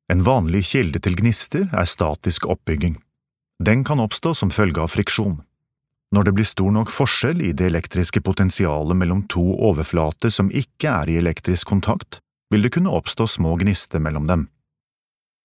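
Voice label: no elearning, Norwegian; mann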